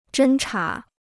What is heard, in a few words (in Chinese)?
"侦察", Chinese